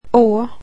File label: swedish alphabet ae